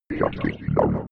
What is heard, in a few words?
robot voice shutting down fx